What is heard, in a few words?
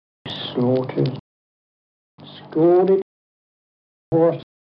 kent thought, accents